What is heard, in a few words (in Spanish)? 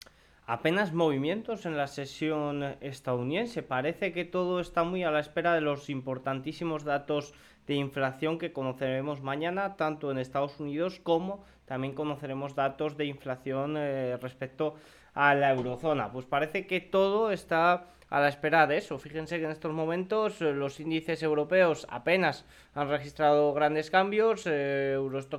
radio; sin movimiento en los indices a la espera de datos de inflacion